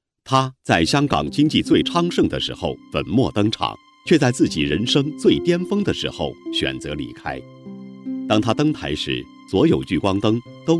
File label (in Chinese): 男 人物专题; 梅艳芳